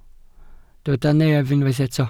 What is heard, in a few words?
"nef", Welsh